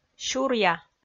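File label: "сюръя" Komi, female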